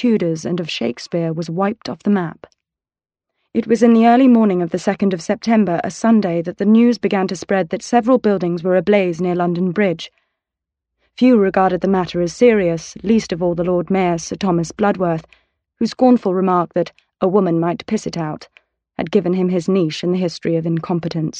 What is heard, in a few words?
British F, British English